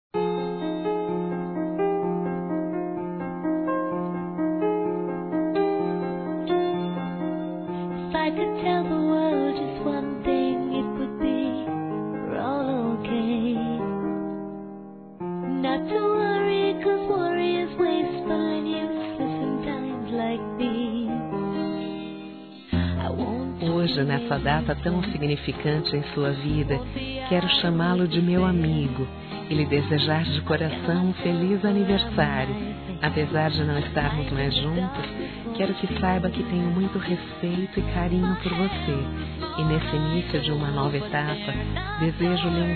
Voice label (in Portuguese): EX NAMORADO F; Aniversários; ANIVERSARIO romantico; fem